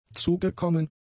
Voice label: "zugekommen", German; adjectives

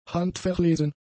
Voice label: "handverlesen", German; adjectives

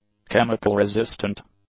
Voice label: "chemical-resistant", English; adjectives

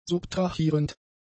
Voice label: "subtrahierend", German; adjectives

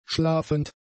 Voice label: "schlafend", German; adjectives

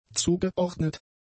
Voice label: "zugeordnet", German; adjectives

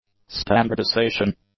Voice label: "standardisation", english; nouns

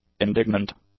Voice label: "indignant", English; adjectives

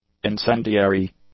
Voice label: "incendiary", English; adjectives

